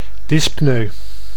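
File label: "dyspnoe", Dutch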